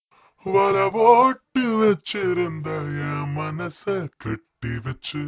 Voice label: Vocal Male Tamil pitch